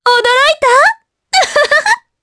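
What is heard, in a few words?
Vox Skill, Japanese; Epis; kingsraid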